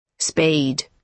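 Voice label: "spade", British English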